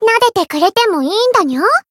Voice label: voice jp; nekoko